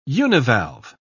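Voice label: "univalve", English, British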